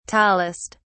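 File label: "tallest", British English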